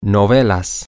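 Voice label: novels sitcoms, Spanish, male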